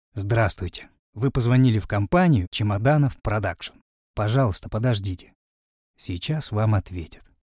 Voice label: Приветствие автоответчик

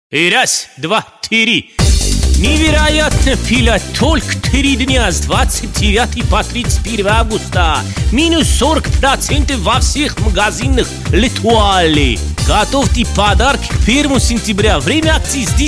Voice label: АЗИАТ Гастарбайтер из средней азии; Letual

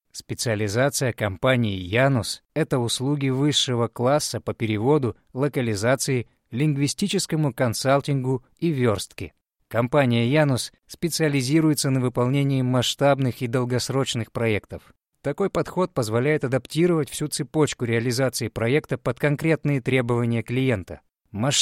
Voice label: закадр; Янус

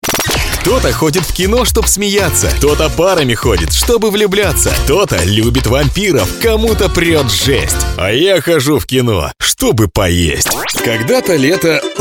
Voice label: демо голоса яркий бодрый позитивный

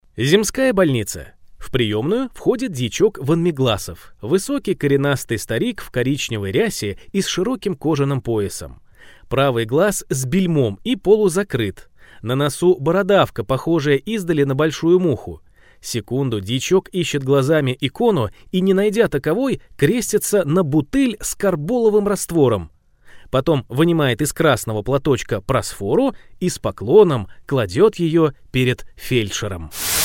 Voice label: Диктор аудиокниг проза стихи

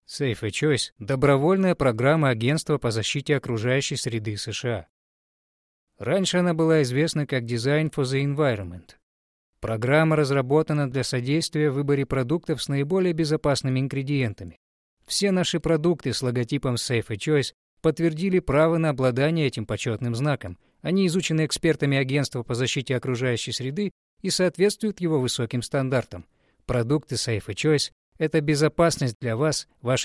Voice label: закадр; Амвэй

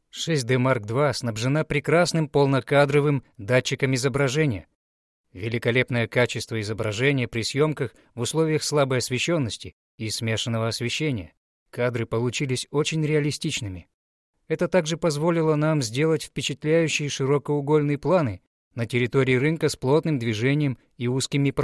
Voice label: Обзор видео камеры закадр

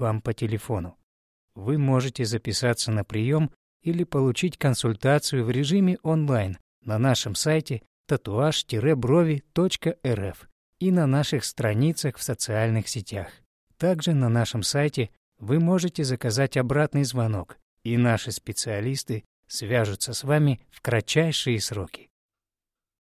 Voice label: автоответчик в студии татуажа

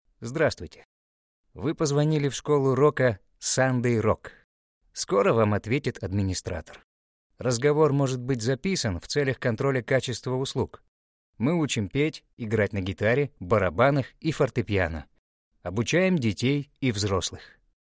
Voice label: Автоответчик; Сандей Рок

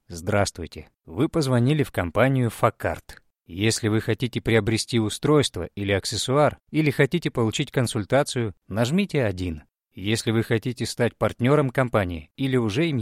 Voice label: Автоответчик; Факард

